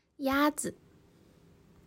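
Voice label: "鸭子", Chinese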